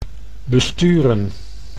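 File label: "besturen", Dutch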